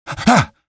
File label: startle, male; Half-Life 2